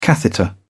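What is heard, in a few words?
"catheter", British English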